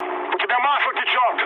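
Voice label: Russian damaged oil mood med, speech; War Thunder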